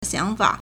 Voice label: "xiang3fa3", Chinese